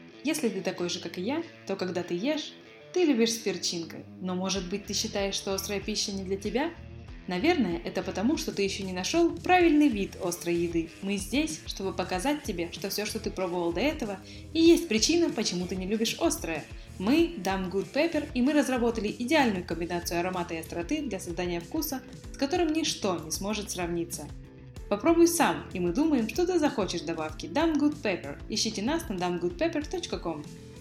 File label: commercial ru